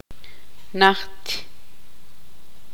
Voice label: tzutujil; lejos